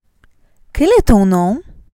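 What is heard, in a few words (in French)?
"Quel est ton nom", French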